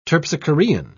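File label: "terpsichorean", English, British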